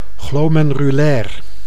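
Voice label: "glomenrulair", Dutch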